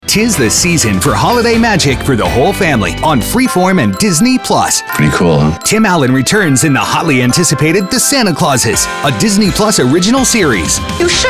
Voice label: promo men entertainment